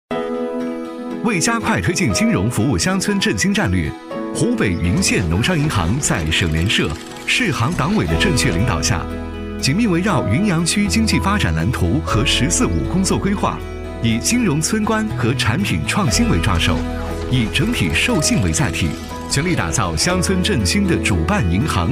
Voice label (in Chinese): 男 银行宣传 轻松活力; 湖北郧县农商银行; 时尚科技; 轻松活泼